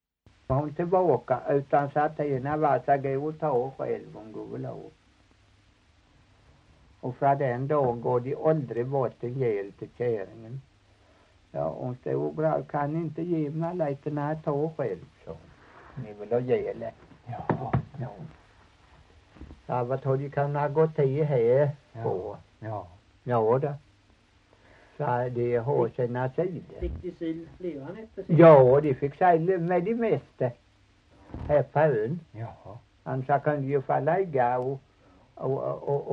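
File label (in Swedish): Torhamn Blekinge, Svenska sagor dialekter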